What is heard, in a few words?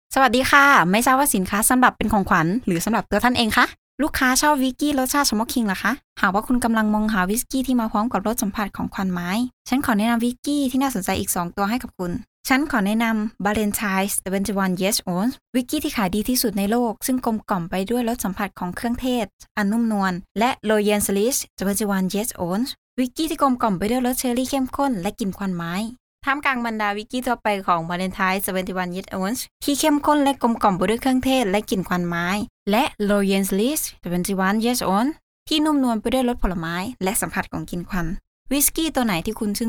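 TH Commercial, Thai; GoLocalise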